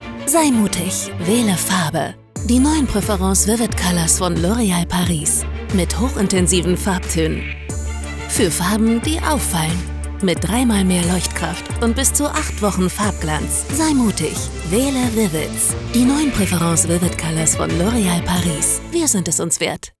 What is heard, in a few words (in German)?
Werbung; Loreal